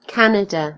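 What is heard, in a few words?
"canada", British English; gcompris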